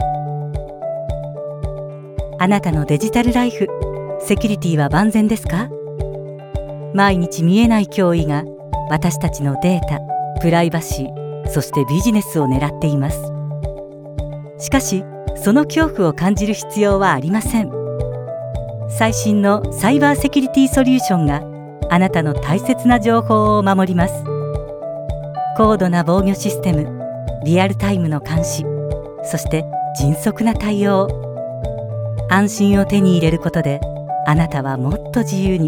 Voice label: female Japanese corporate commercial

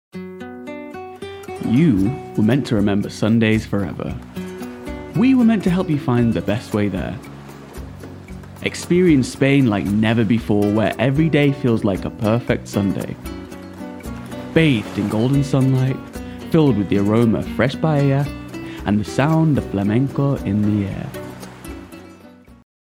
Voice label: male English neutral northern accent commercial

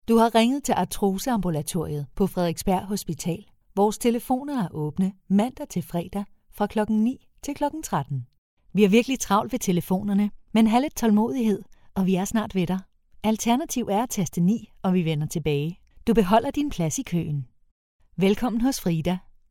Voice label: female danish ivr compilation

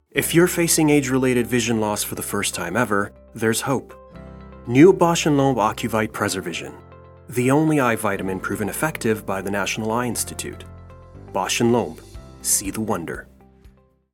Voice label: male canadian accent; english canadian

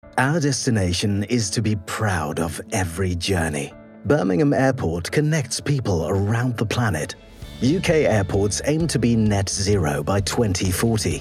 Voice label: male english corporate; english and german

